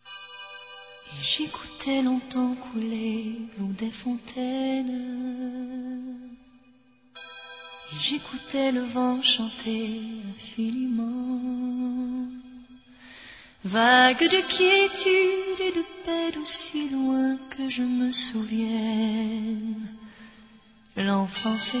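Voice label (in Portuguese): Natal para pai feminino, Telemensagens; Natal e Ano novo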